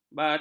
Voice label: "batak", ms